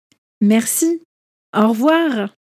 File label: merci au revoir, French; everyday french a1 a2